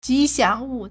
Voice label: "ji2 xiang2 wu4", Chinese